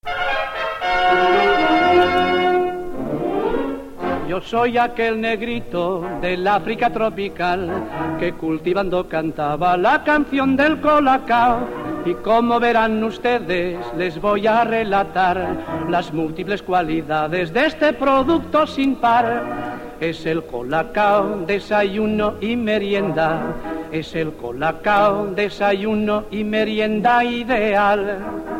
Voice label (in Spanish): publicitaria